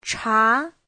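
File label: "chá", Mandarin Chinese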